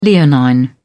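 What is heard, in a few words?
"leonine", British English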